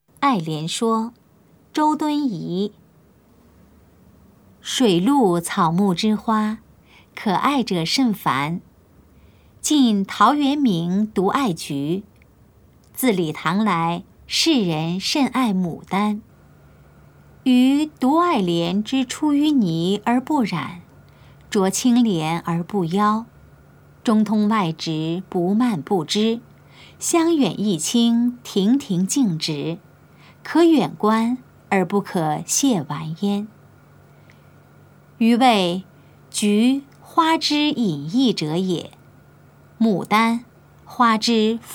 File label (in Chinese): putonghua; 愛蓮說; 普通話